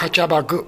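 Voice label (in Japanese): "kachabagu", 南山形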